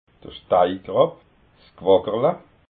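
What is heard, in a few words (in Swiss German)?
"steikrab", Alsatian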